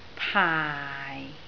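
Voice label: "paai4" Cantonese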